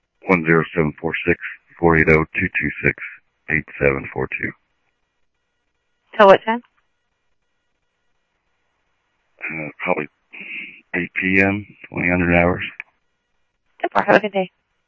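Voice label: Mesa Police Department, scanner audio; Mesa Simulcast; MESA PD 1 Fiesta MesaPoliceDep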